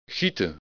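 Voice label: "gieten", Dutch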